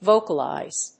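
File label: "vocalize", English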